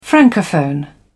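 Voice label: "francophone", English, British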